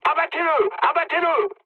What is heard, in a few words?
attack position mood low, speech fr; War Thunder